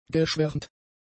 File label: "geschwärmt", German; adjectives